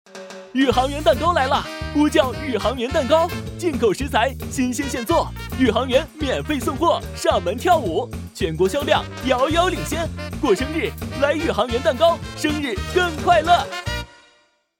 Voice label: 促销

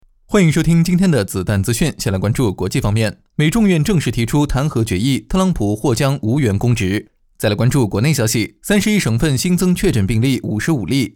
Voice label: 资讯男

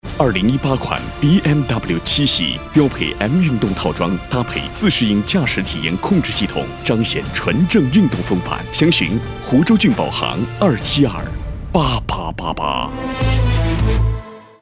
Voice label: 汽车广告; bmw 7

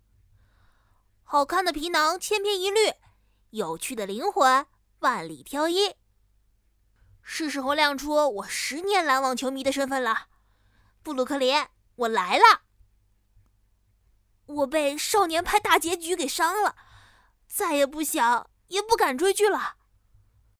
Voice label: 正太

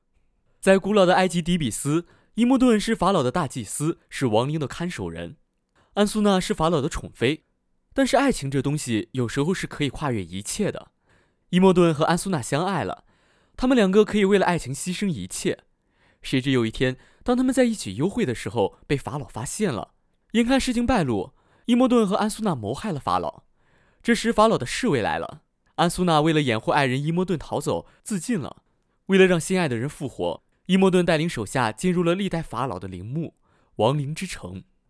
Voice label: 电影解说舒缓男

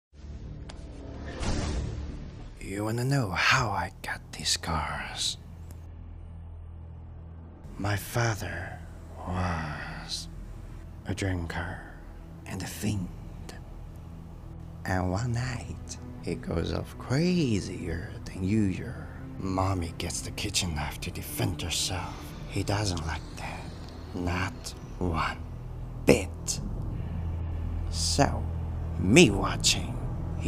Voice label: 角色配音小丑疯狂

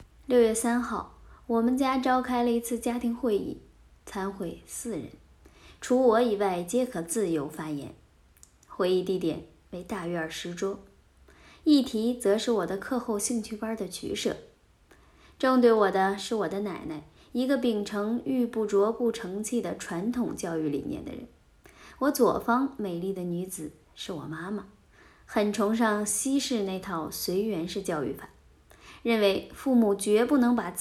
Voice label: 家庭会议 配音

